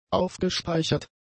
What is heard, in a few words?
"aufgespeichert", German; adjectives